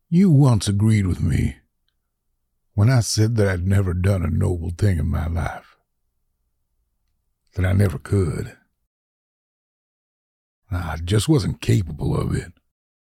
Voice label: Roughneck, Character Voice